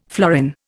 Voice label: "florin", Indian

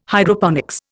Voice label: "Hydroponics", Indian

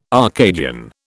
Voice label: "Arcadian", British

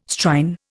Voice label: "Strine", Indian

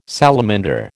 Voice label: "salamander", American

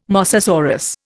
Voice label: "mosasaurus", Indian

